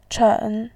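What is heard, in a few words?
"chen", Mandarin Chinese, third tone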